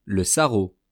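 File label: "le sarrau", French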